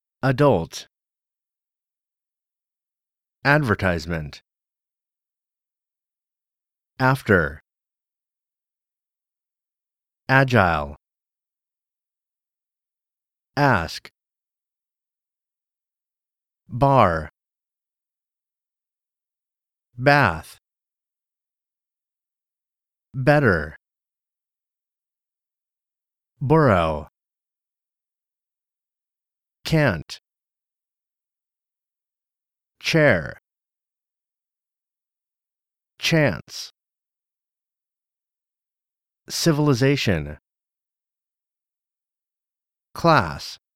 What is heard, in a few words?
Word List AmE with pauses